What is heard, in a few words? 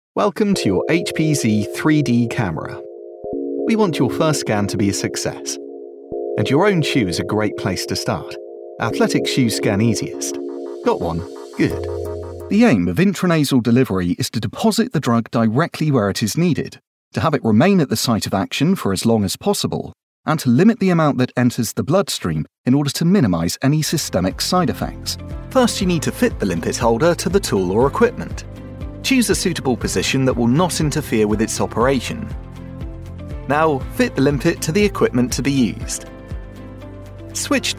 30s Male British eLearning